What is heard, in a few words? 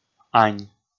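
"ань", male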